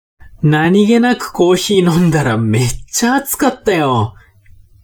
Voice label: man